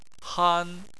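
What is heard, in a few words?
"han", Chinese, first tone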